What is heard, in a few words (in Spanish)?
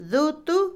Otomí, mujer; ropa; INALI